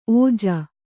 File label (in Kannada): "ಓಝ", Kannada